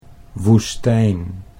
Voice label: "woestijn", Dutch

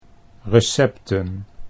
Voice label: "recepten", Dutch

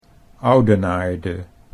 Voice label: "Oudenaarde", Dutch

